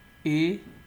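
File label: "a", Nigerian Pidgin, male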